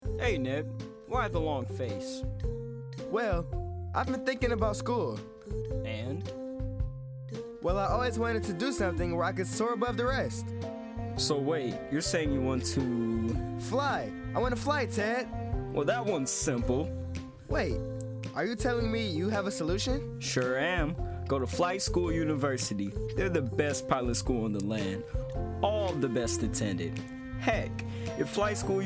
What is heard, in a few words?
commercial